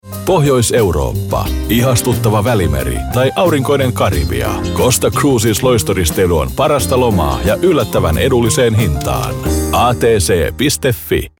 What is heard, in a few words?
fi commercial